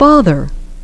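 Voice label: "father", English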